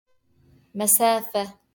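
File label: "مسافة" Arabic; distance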